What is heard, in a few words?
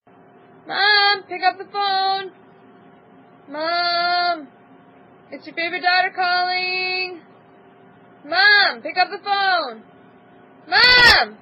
DAUGHTER CALLING RINGTONE